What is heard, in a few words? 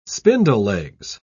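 "spindlelegs", British English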